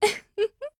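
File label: mood, Japanese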